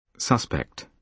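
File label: "suspect", British English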